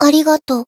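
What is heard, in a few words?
healed, Japanese; nagi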